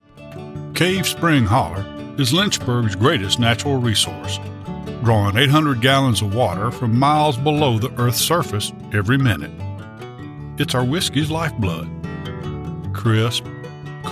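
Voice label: English US, corporate; GoLocalise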